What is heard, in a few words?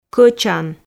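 "кӧчан", Komi, female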